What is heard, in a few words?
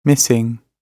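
"missing", British English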